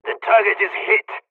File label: dialogs polish; War Thunder